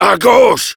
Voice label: heavy head left, fr